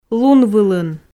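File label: "лунвылын", Komi, female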